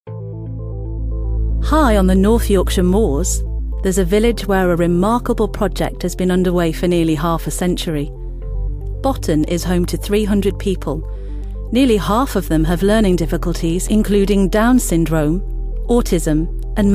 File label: documentary